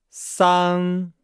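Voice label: "sang", Chinese; Nihao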